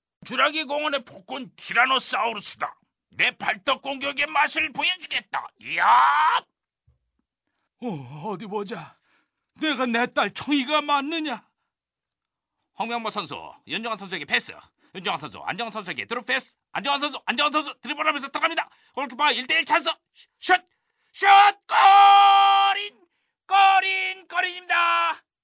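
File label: Korean male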